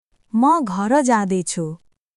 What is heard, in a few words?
Nepali, basic phrases